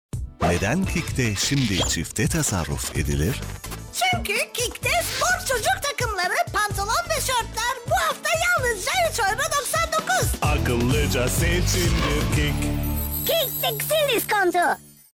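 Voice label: tr, Turkish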